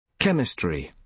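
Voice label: "chemistry", British English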